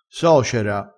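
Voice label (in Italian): "socera", vocabolario dialettale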